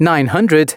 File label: announcements, male